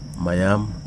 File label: "blood", Wahgi; lae unitech